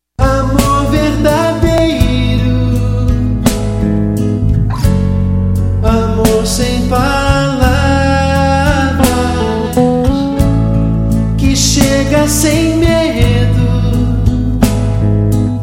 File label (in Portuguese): Dia das Maes, F